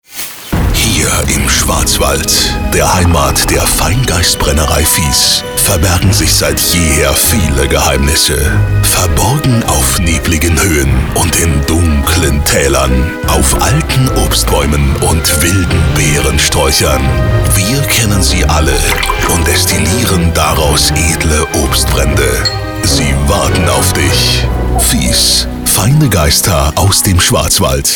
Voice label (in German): radio spot